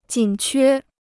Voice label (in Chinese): "紧缺", Chinese